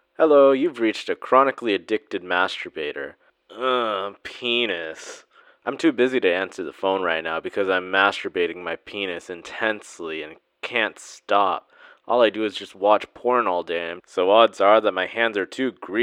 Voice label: Answering Machine